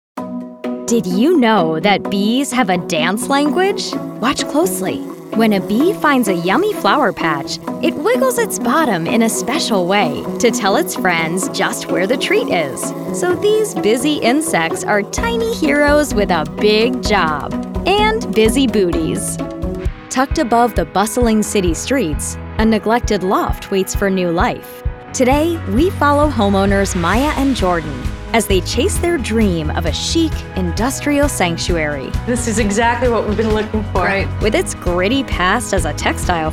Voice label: Tv Narration